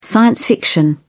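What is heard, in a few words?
"science fiction", British English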